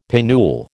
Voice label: "pinnule", American